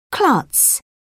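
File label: "klutz", British English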